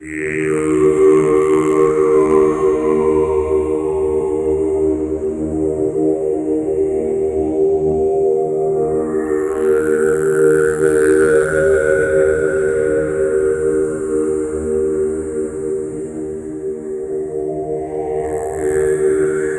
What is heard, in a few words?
low dim voice of hindu; cultural